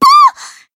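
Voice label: damaged, Japanese; white nopou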